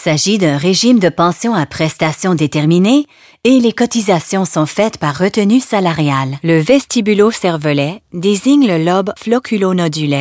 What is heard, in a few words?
french canada narration; FRENCH (CANADA) » English, French; smiling voice.